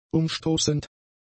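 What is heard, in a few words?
"umstoßend", German; adjectives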